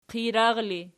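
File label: "qijrar" ergative, Archi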